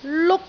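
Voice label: "luk1", Cantonese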